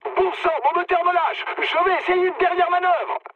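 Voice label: French damaged engine mood med; War Thunder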